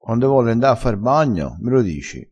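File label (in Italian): "dii", dialettale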